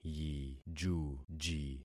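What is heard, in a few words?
"yijuji", concatenated syllables; Affixing experiment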